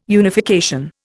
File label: "unification", Indian